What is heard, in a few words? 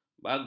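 "bagur", Malay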